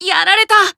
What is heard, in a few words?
Emo Damn